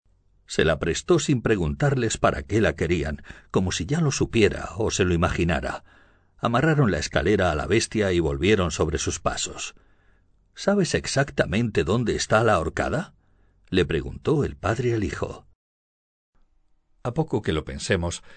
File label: AUDIOBOOK, Spanish, male